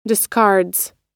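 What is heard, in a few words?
"discards", American English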